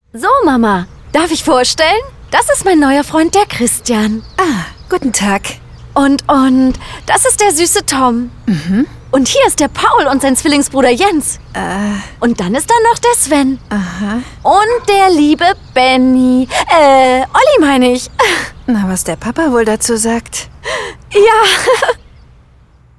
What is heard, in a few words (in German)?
Schauspiel; LOFT VOICES